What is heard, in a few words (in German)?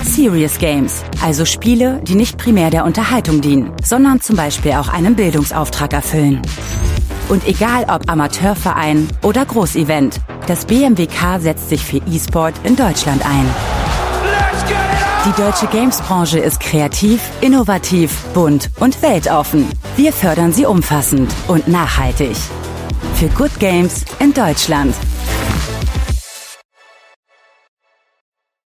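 Sprecherin, German female voice-over; BMWK; Gamescom2023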